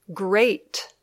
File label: "great", English